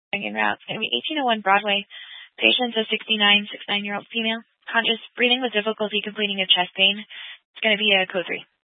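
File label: Fire Dispatch, scanner audio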